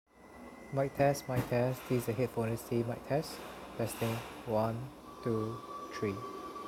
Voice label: Noisy; 1More Triple Driver